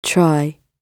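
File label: "try", British English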